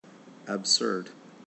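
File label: "absurd", USA, male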